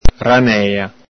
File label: "ranela", Venetian